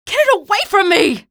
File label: alert head, female; hl2 ep1; episode 1, npc